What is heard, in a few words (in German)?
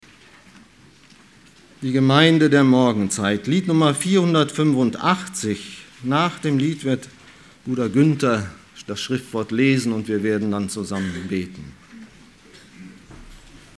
Ansage; Ein Bild eines Missionaren